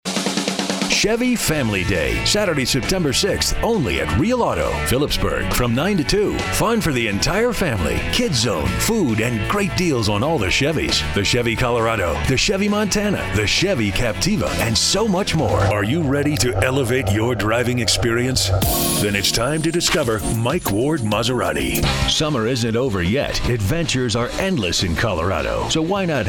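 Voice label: Car Spots, Male Commercials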